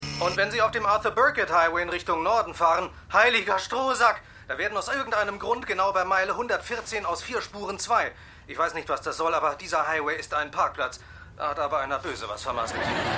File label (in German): Radiosprecher; Seinfeld